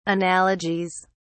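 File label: "analogies", British English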